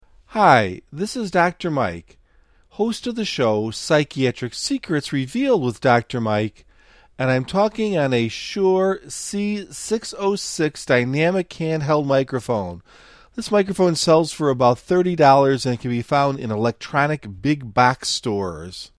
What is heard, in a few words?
Shure C606